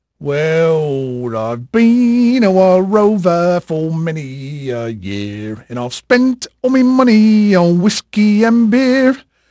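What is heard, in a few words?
English male, voice; Pirates Of The Caribbean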